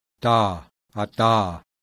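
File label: voiced alveolar plosive; IPA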